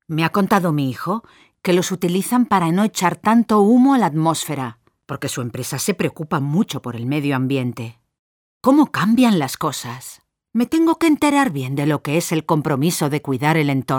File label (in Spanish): locutora mayor spanish voiceover; voces ancianos